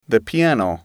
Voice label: "piano", English